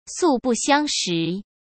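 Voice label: "素不相识", Mandarin Chinese; chinese-flashcards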